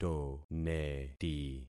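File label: "toneti", concatenated syllables; Affixing experiment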